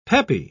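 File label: "peppy", British English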